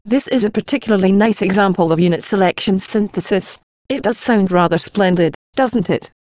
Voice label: rhetorical female